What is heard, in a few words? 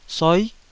"soi9", Taiwanese syllable